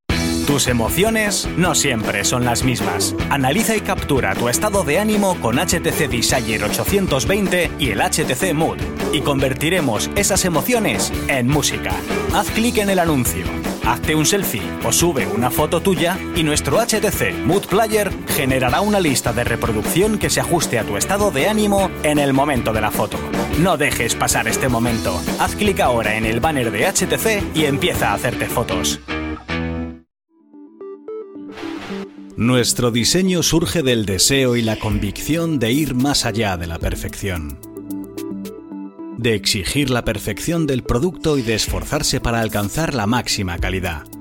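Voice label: male, Spanish Spain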